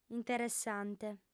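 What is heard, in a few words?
"interessante", female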